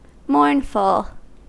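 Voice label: "mournful", US English; pronunciations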